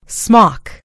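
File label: "smock", American English; gcompris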